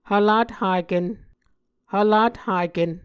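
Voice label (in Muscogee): "hvlathaken", Muscogee word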